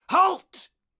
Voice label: Caster Elite Alert Line, dialogue